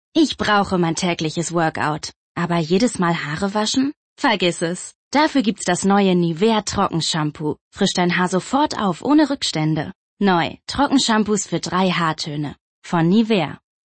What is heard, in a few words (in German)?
Werbung; Nivea